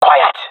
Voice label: request quiet, jungle male; vo; agents; Source Model Packs; Counter Strike Model Pack; CS2